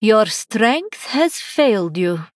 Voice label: Female Draenei; TRIGGER SUBDUE

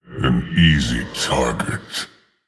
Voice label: Male Orc Attack

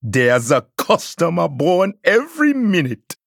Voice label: Male Troll Play

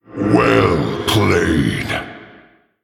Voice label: Male Orc Well Played; Thrall Deathknight

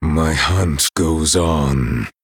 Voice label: Male Night Elf Thinking

